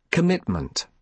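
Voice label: "commitment", British English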